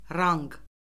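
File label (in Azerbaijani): "ranq", Azerbaijani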